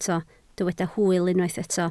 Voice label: "hwyl", Welsh